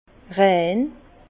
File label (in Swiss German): "rein", Bas Rhin; vin; pur